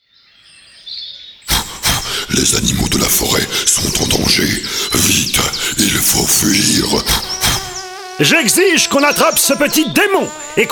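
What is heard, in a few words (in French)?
Dessins animés mélangés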